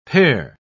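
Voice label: "pear", English word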